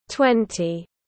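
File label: "Twenty", English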